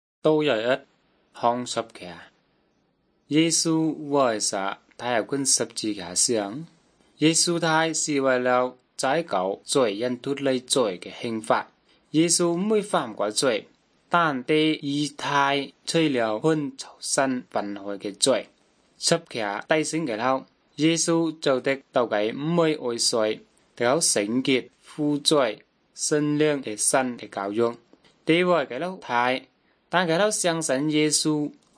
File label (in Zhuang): good news zhuang nanning fushu; zhuang yongbei; bible